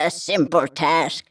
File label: Female; baldurs1